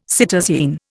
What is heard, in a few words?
"cytosine", Indian